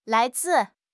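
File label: "lai2 zi4", Chinese; HSK4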